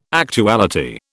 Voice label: "actuality", British